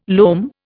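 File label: "loam", Indian English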